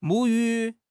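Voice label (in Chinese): "母语", Chinese; HSK6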